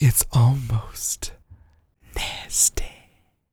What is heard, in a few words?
DIALOG, R&B Dance, FEM. SPOKEN